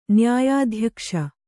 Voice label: "ನ್ಯಾಯಾಧ್ಯಕ್ಷ", Kannada